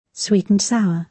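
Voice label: "sweet-and-sour", British English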